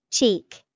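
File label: "cheek", English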